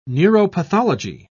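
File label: "neuropathology", British English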